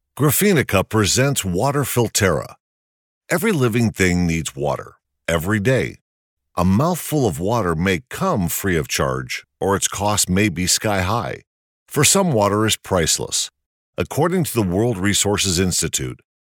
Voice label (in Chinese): 外籍男 关于水